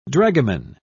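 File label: "dragoman", British English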